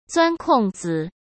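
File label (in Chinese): "钻空子", Mandarin Chinese; hsk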